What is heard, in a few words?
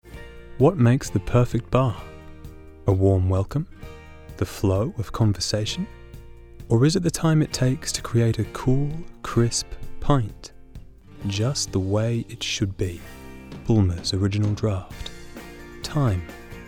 COMMERCIAL VOICE REEL